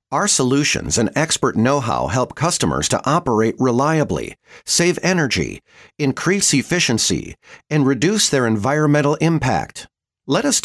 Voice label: us english